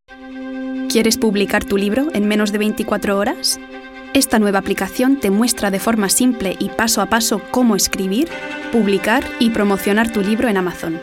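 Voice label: Spanish, corporate; GoLocalise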